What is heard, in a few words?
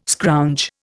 "scrounge", Indian